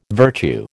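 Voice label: "virtue", American English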